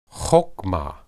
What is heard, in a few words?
"hokmah", Hebrew